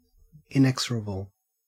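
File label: "inexorable", Australian English; pronunciations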